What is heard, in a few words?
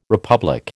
"republic", American